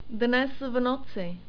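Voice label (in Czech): "dnes v noci", czech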